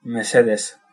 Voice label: "please", Basque

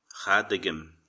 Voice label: no understand, Irish

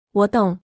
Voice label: understand, mandarin

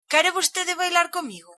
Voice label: dance, galician

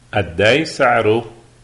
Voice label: how much, lebanese arabic

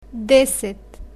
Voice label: "deset", bulgarian

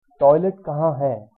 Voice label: "toilet", Hindi

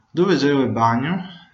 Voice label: toilet, venetian

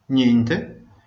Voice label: "you're welcome", Venetian

